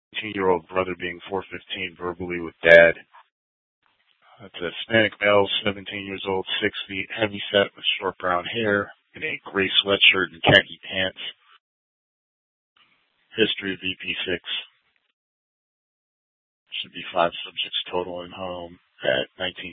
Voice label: Police South Dispatch

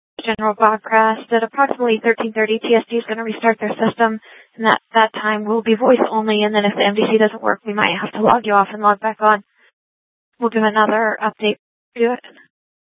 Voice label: Dispatch; Scottsdale

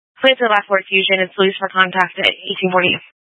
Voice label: Police Dispatch

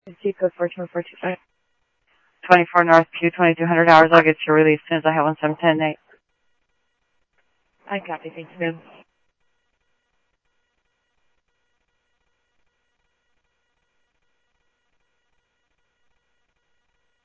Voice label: Mesa Simulcast police; MESA PD 2 Centrl; MesaPoliceDep; jumper

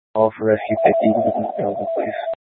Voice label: Fire Dispatch; C- Chandler

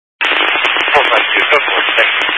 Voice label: Highway Patrol, scanner; DPS; Dist 06 Casa Grande

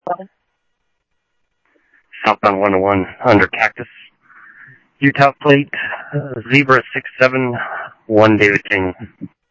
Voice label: Police, scanner audio trunk; H-Scottsdale; SCTSDLE PD - PD2 ScottsdalePolice

